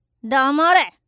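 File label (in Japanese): "黙れ", Japanese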